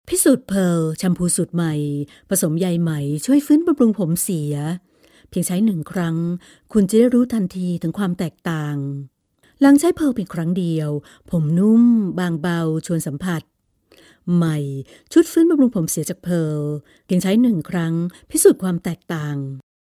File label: THAI